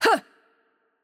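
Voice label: Italian, attack light; VO; bloom minigame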